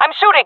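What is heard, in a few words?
attacking, jungle female; player vo jungle fem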